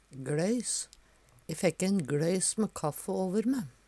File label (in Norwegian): gLøys, Numedalsmål; Substantiv hankjønn